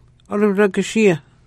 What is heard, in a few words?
oragashia shelter, Hidatsa; Webonary